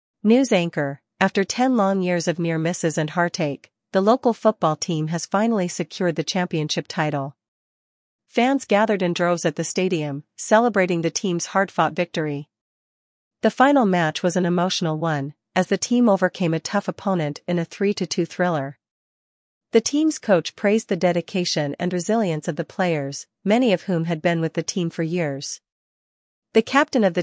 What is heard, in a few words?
news anchor; celpip practice test; writing practice test